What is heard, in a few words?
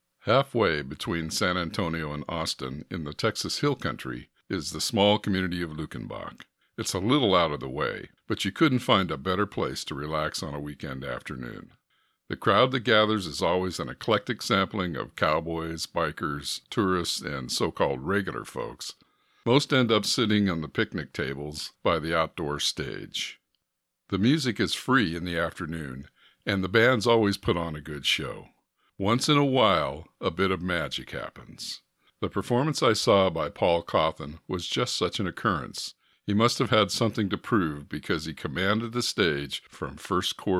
VO tracks